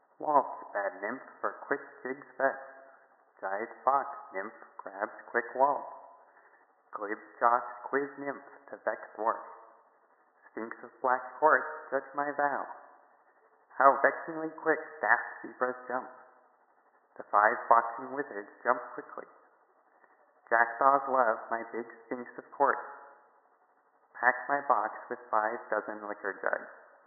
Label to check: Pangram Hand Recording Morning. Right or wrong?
Right